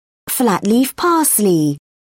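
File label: "flat-leaf parsley", British English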